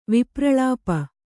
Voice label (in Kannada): "ವಿಪ್ರಳಾಪ", Kannada